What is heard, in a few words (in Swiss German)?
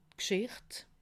"gschicht", alsace